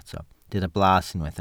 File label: "blas", welsh